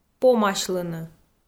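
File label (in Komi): "помасьлыны", Komi, female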